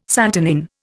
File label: "santonin", Indian